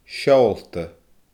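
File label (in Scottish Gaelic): "seoolta", Scottish Gaelic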